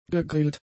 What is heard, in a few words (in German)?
"gegrillt", german; adjectives